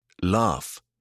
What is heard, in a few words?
"laugh", British English